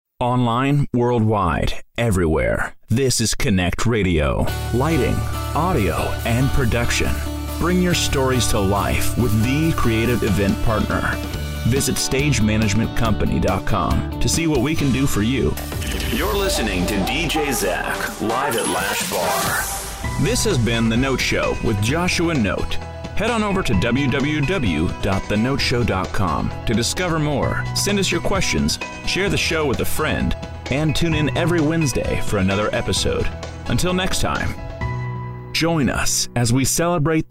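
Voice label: English, United States, male; showreel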